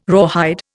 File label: "rawhide", Indian